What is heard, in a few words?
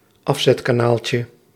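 "afzetkanaaltje", Dutch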